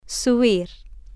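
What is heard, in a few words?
"subir", female, Spanish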